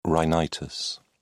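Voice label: "rhinitis", British English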